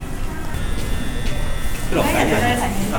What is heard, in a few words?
neutral, エレベータホール, Neutral; 感情プロファイルマッチング環境音